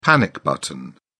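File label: "panic button", British English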